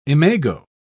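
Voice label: "imago", British English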